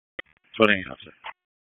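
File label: Fire Department HQ Dispatch; RFD HQ DISP; wake; p25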